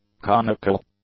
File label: "conical", English; adjectives